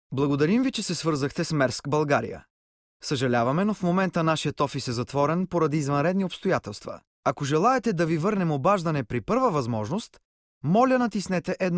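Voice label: Bulgarian, male, IVR; voice demos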